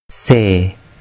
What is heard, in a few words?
"se", urdu